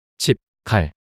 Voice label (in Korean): "칩칼" 낱소리 남자